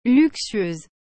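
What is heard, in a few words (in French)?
"luxueuse", French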